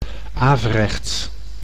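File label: "averechts", Dutch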